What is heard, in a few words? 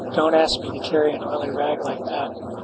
speech est